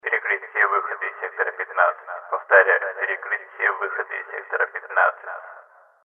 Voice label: announce; Dystopia; exodus